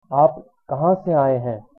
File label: where from, hindi